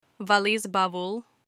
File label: suitcase in turkish